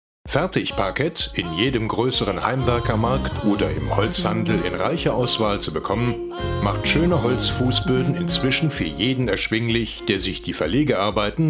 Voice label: e-learning